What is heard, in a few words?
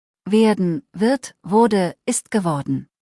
"werden", deutsch; verben kurzliste